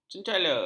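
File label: "cencala", Malay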